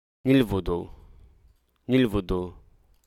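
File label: Hruso Aka; cherish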